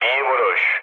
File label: bomb success, mood low, speech tur; War Thunder